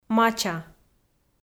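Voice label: "мача", Komi, female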